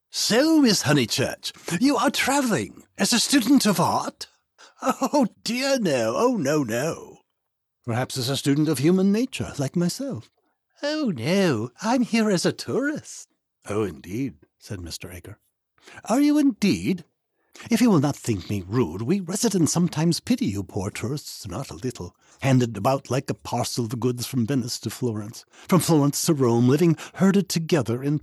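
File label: Male Female British Accent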